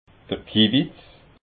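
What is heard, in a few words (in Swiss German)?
"kiwitz", Alsatian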